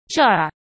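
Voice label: "这儿", Mandarin Chinese; chinese-flashcards; hsk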